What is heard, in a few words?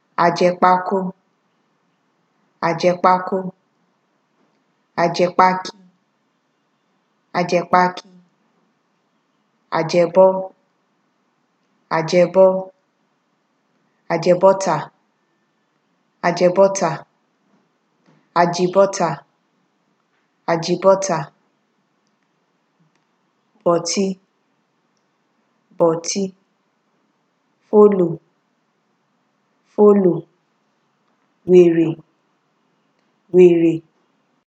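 ajibota, Nigerian Pidgin, female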